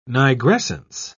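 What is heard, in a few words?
"nigrescence", English, British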